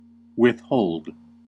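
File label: "withhold", American English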